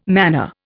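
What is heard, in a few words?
"manna", Indian English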